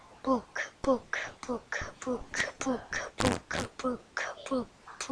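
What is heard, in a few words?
"book", spoken word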